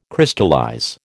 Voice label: "Crystallize", American